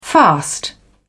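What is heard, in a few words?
"fast", British English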